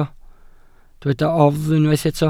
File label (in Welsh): "oddf" Welsh